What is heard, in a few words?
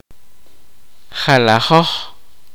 kaqchikel; several